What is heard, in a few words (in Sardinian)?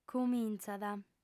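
"cumintzat", female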